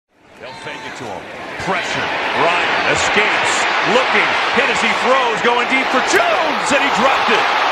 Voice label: English football reporter

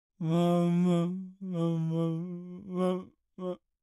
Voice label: mumbling

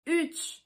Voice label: three in Turkish

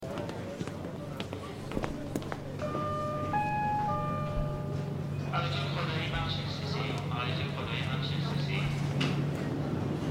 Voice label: voice hospital speaker

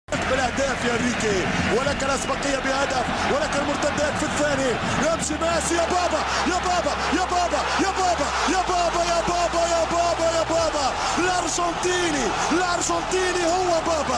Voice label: Arabic football reporter

